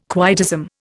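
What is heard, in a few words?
"quietism", Indian English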